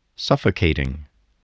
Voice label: "suffocating", spelling